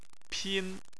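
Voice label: "pin" first tone, Chinese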